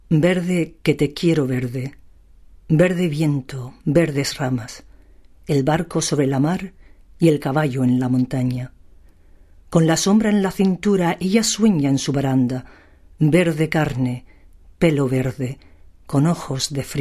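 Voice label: Spanish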